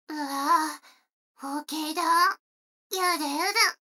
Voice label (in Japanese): 女の子台詞, ASMR